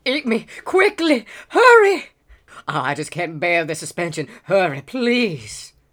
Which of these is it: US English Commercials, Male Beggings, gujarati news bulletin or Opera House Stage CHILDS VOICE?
Male Beggings